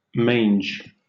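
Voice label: "mange", British English; pronunciations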